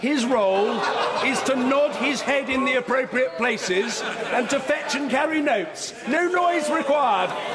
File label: insults shouting; yesterday in parliament; a sad putdown